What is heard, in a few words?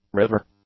"river", English; nouns